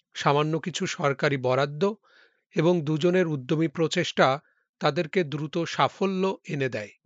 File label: Male